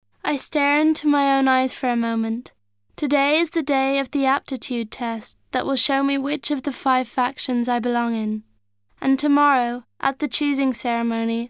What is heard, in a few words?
Narration